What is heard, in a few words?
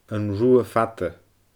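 "An Rubha Fada", Scottish Gaelic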